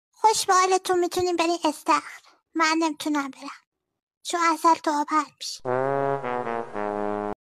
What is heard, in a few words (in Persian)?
khosh behaletoon